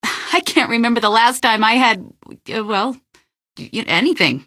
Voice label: answer, female; Garry's Mod; hl2